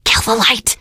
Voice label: kill that light, teengirl, voice; survivor voice